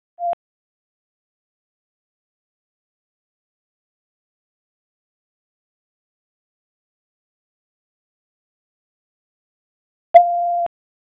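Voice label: Police District Dispatch, p25; Raleigh Police Southeast District Disp; wake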